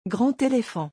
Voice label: "grand éléphant", French